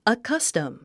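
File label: "accustom", English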